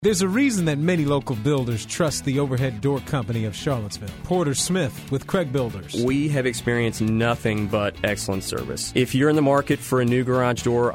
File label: testimonial; overhead door company